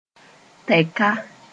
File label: "tɛka", Moore